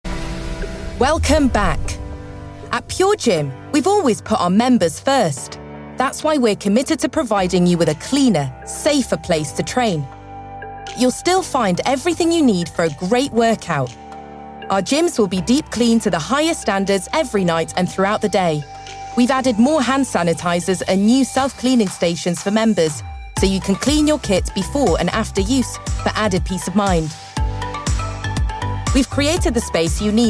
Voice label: English British promos